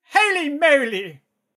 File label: battle chatter